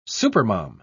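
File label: "supermom", English, British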